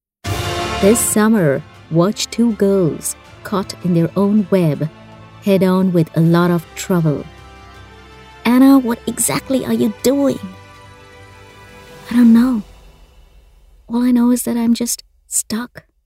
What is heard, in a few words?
english international movie trailers